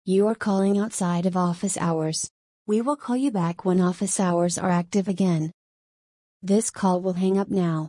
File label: outside operational hours inbound calling sample